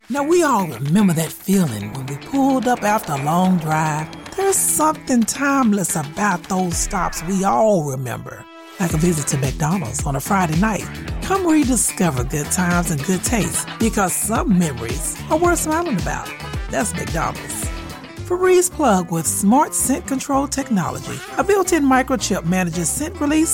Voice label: commercial